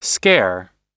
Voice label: "scare", oxford